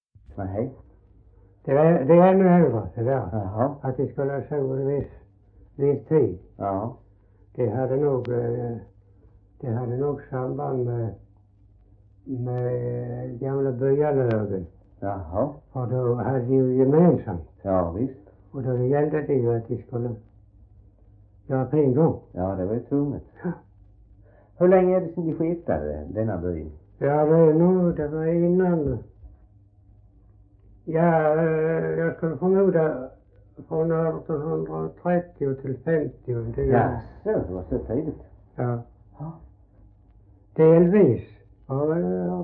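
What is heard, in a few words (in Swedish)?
Röstånga Skåne, svenska sagor dialekter; Folkminnen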